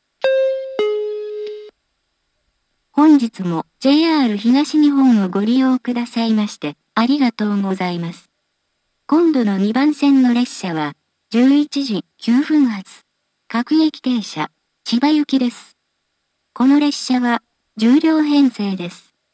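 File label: platform 2 advance notice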